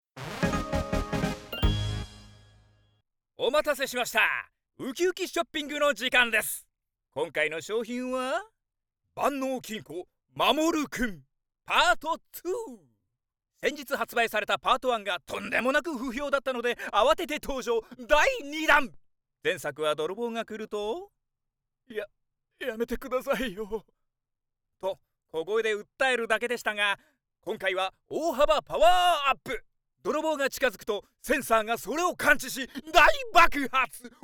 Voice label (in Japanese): ナレーション, テンション高め; 「万能金庫！まもる君」; テンション高セリフ